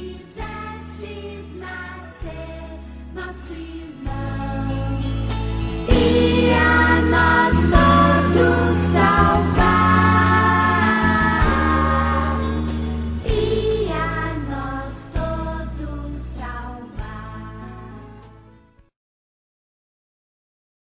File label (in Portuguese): Natal Para Criança Masculino; Telemensagens; Natal e Ano novo